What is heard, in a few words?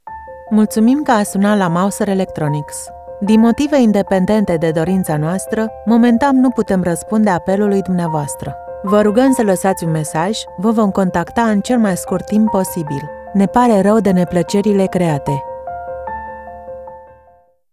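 Romanian, IVR; GoLocalise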